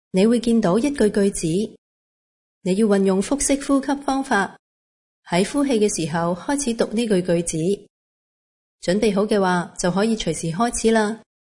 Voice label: instruction breathing; aphasia